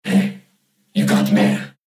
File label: Voicemail